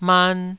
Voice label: "man2", Taiwanese syllable

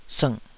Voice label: "sngh3", Taiwanese syllable

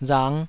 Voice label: "rang5", Taiwanese

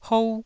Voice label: "hou1", taiwanese

